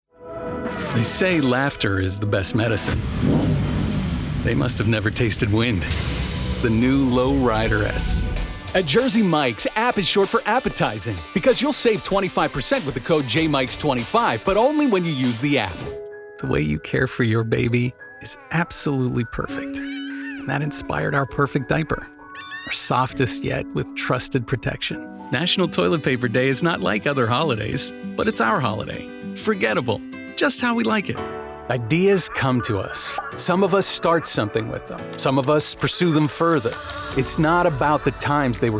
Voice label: Commercial